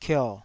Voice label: "kio3", taiwanese